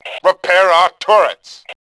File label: turrets, Male; voice; Tribes2